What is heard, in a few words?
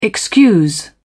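"excuse", British English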